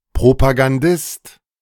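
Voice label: "Propagandist", German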